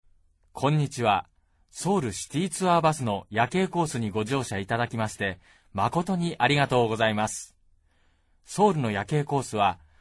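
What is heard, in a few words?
Japanese, male, various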